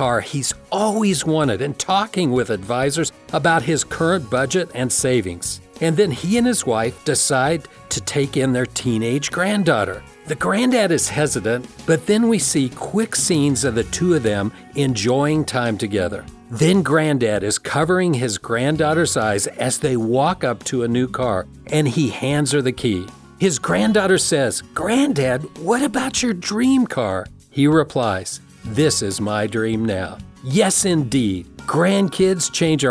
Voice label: Commercial